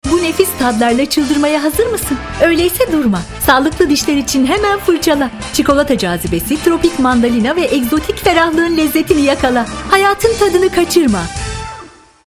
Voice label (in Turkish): neseli esprili; duygusal motive